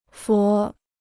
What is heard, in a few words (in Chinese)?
"佛", Chinese